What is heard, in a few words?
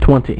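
"twenty", male; MacCribbage; InterStellar MacCribbage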